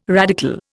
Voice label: "radical", Indian